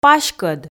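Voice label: "паськӧд", Komi, female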